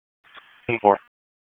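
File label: Raleigh Police Southeast District Dispatch; wake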